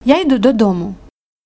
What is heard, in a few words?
dialogue, Ukrainian